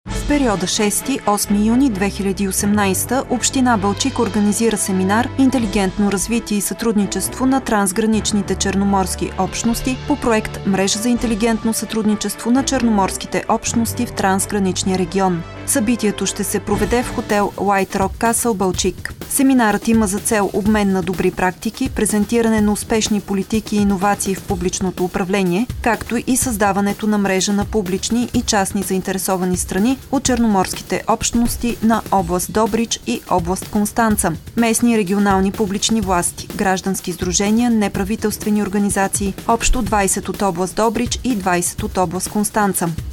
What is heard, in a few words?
Radio Spot; Upcoming workshop